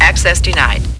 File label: Computer Access Denied; startrek